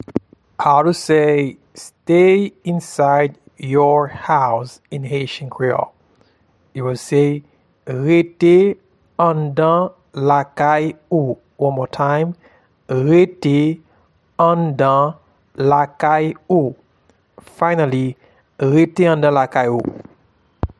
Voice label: Stay inside your house in Haitian Creole, Rete anndan lakay ou